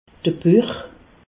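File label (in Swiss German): "buch", Alsatian; medecine; ventre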